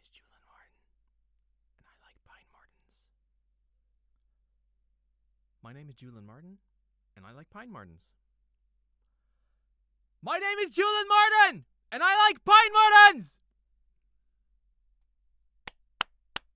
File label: silence whisper speech shouting claps; 10 sec; 3x claps